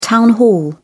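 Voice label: "town hall", British English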